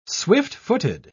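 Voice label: "swift-footed", British English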